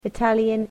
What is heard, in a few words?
"Italian", english